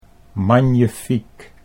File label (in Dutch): "magnifiek", Dutch